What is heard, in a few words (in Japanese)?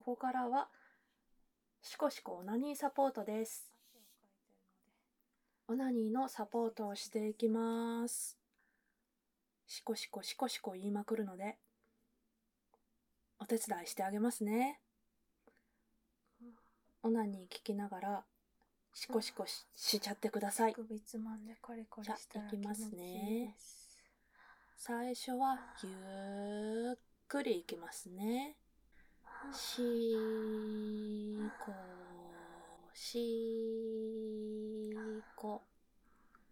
ノイズ部分カット シコシコ 連呼 オナサポ + オナニー 実演 ステレオ; オマケ 鈴の音などをカットした音声